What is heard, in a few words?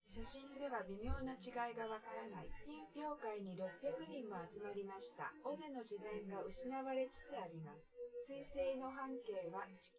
female synth conv; SiSEC10; underdetermined